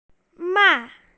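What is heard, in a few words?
"mai4", Mandarin Chinese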